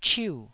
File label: "chiu4", taiwanese